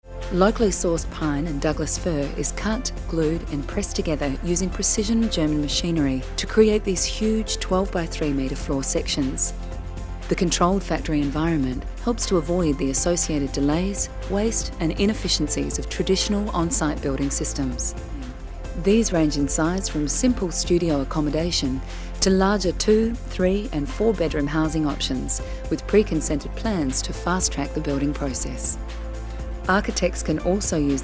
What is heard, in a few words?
Corporate